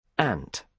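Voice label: "ant", British English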